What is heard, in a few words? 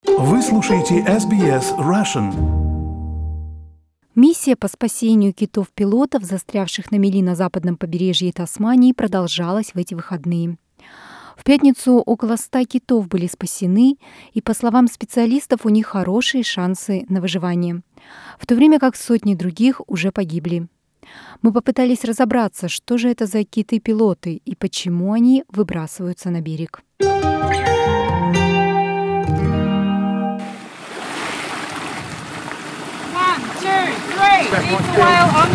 russian; SBS Russian; Что это за киты-пилоты и почему они застревают на берегу?